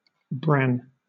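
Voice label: "bran", British English; pronunciations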